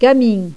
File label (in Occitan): "camin", gasconha